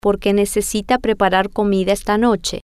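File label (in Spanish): Porque Necesita Preparar Comida Noche, spanish, female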